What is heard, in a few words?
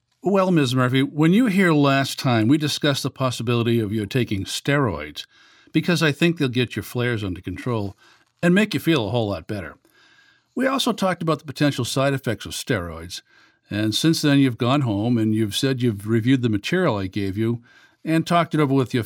Positive Role Play; medical narration